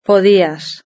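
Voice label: "podías" Spanish; verb-conjugator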